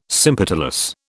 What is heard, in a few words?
"sympetalous", British